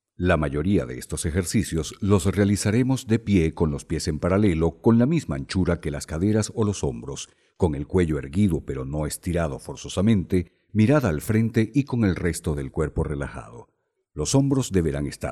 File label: locutor venezuela venezuelan voiceover; locutor de Venezuela